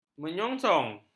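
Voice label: "menyongsong", Malay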